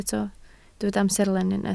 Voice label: "amserlen", Welsh

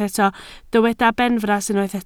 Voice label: "benfras", Welsh

